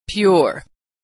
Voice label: "pure", pronunciation

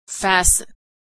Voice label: "fasten", pronunciation

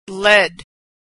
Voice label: verb "led", pronunciation

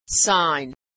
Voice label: "sign", pronunciation

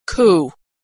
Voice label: "coup", pronunciation

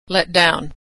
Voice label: "let down" verb

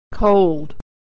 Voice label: "cold", pronunciation

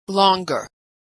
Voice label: "longer", pronunciation

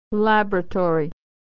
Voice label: "laboratory", pronunciation

